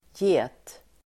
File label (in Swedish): "get", Swedish